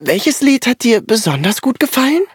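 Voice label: levelup, German; Cookie Run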